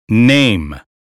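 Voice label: "name", American phonetics; 英语音标发音（剑桥版）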